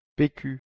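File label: "peku", Proto-Indo-European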